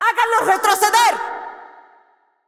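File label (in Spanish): assist, Mexican Spanish; es MX; VO; Irelia; bloom minigame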